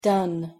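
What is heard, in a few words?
"done", English pronunciation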